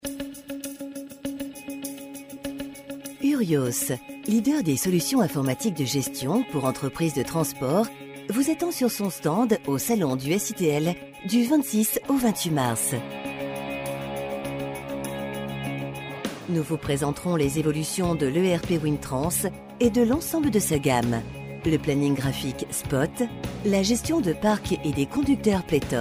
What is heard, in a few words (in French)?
attente tel